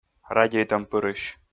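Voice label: "радейтӧмпырысь", Komi, male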